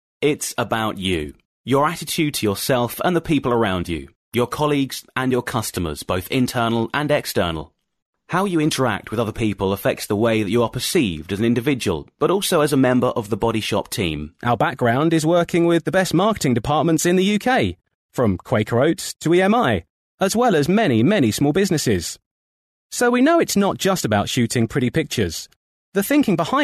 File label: English M Corporate Voicereel